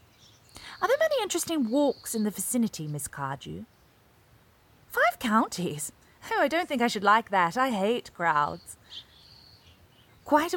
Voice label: RP (Earnest); Gwendolyn